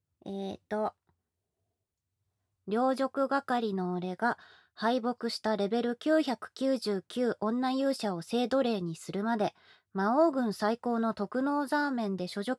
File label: FreeTalk, ASMR; フリートーク